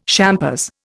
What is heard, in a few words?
"champers", Indian